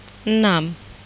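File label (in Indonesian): "enam", Indonesian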